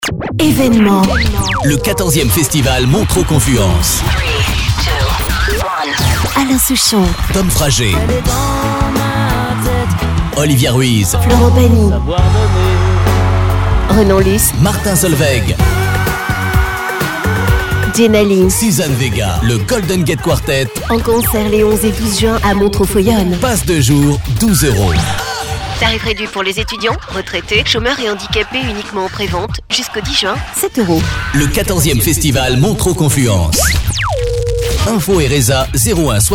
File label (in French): spot; 1ere vague